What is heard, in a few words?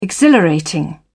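"exhilarating", British English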